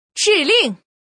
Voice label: "chiling", female